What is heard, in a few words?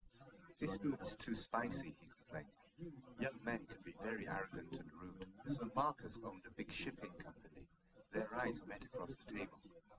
speaker rec; forschungsprojekt trennung